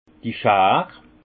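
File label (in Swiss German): "schaar", Haut Rhin; vin; sécateur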